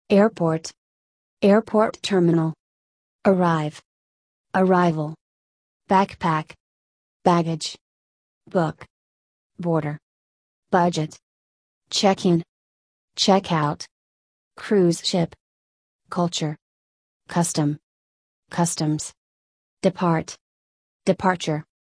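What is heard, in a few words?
American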